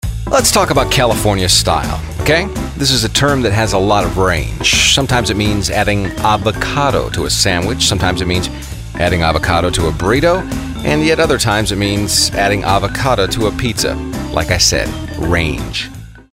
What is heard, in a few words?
wry